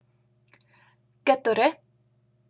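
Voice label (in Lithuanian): "keturi", Lithuanian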